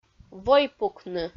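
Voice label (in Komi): "войпукны", Komi, female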